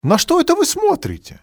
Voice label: what looking at, male; XBOX; civ

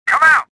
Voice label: suspicious; XBOX; worker

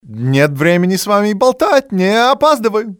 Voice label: no time to talk, male; Soldier Of Fortune II Double Helix; XBOX; civ

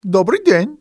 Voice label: "good day", male; XBOX; civ

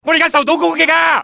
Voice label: under fire, cantonese, male; XBOX; enemy

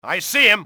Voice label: see him, english male; XBOX; enemy

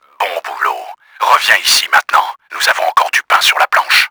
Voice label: happy, french; KRAMER; Battle Engine Aquila

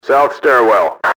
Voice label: stair south, enemy english team orders; XBOX

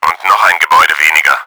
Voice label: BUILDING 2 DESTROYED, german; Battle Engine Aquila

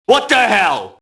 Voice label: mobster alert; Max Payne

